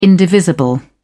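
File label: "indivisible", British English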